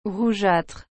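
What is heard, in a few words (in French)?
"rougeâtre", French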